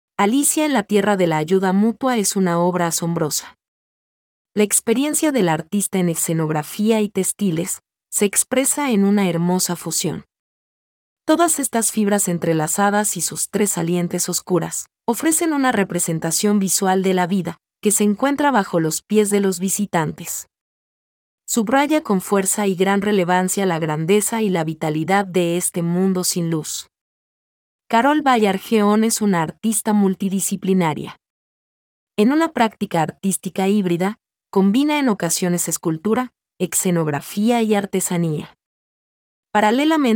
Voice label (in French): espagnol